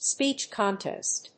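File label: "speech contest", English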